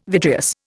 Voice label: "vitreous", Indian